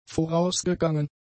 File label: "vorausgegangen", German; adjectives